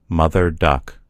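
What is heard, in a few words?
"duck mother", American English; gcompris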